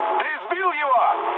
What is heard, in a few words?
player killed enemy, mood med, speech ru